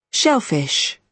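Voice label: "shellfish", British English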